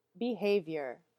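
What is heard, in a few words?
"Behavior", English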